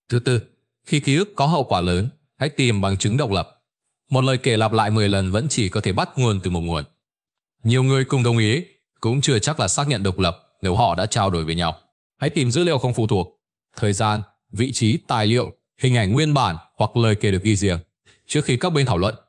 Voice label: narration